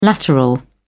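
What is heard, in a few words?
"lateral", British English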